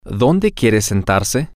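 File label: Spanish, where do you want to sit, female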